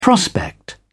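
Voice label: "prospect", British English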